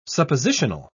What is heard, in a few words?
"suppositional", en uk